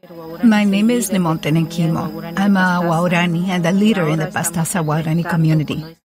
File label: Testimonial Overdub